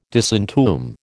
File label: "disentomb", American